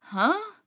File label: Confused; Skye; paladins